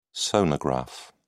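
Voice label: "sonograph", British English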